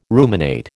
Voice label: "ruminate", American

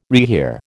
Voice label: "rehear", American

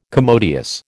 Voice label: "commodious", American

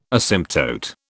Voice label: "asymptote", British

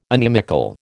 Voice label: "inimical", American

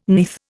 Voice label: "neath", Indian

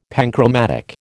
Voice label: "panchromatic", American

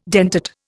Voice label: "dentate", Indian

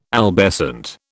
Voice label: "albescent", British

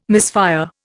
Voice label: "misfire", Indian

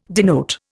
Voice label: "denote", Indian

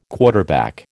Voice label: "quarterback", American

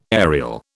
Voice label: "aerial", British

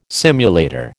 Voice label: "simulator", American

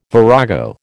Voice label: "virago", American

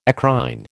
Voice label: "eccrine", American

